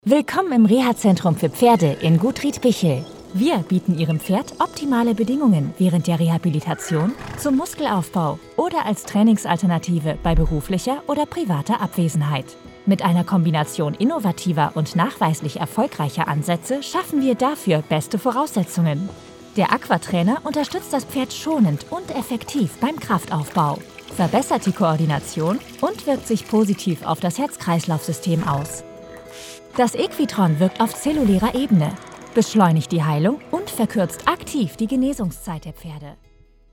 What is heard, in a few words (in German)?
Imagefilm freundlich; Gut Riedbichl